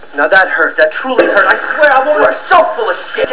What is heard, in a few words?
hurt